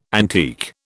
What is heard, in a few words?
"antique", British